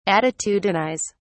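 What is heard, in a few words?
"attitudinise", English, British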